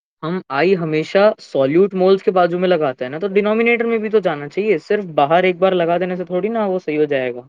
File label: voice message